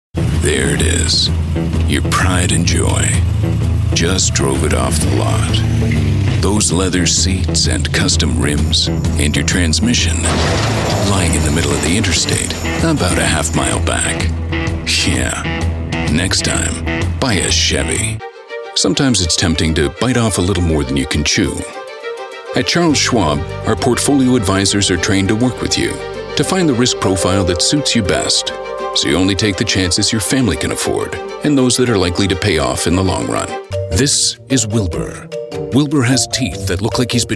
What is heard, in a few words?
COMMERCIAL